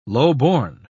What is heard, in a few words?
"lowborn", pronounce